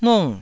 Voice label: "nung4", taiwanese